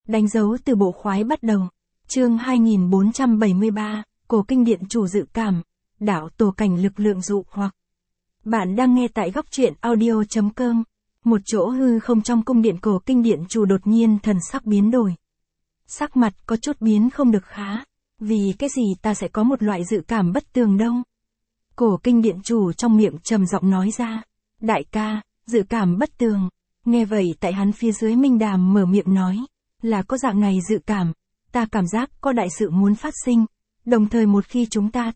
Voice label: vi-VN Standard; danh dau tu bo khoai bat dau

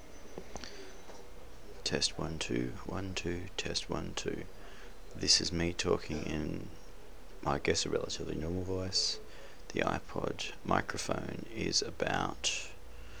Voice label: Hi Close, Mic close to face

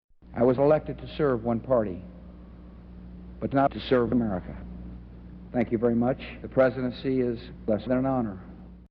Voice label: Presidential Speech

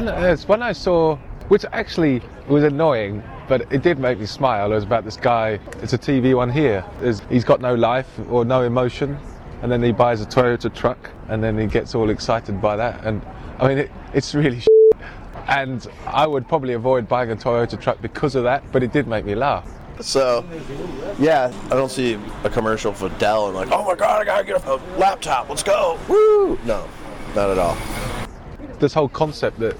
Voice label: Funny Ads; Portable Sound Unit Clips

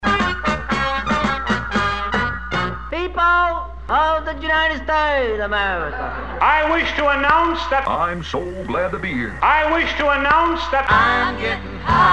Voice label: announcements

